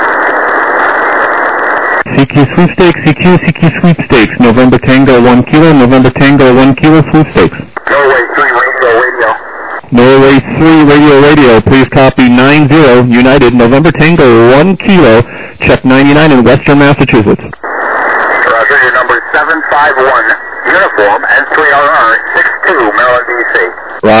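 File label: lower sideband 7MHz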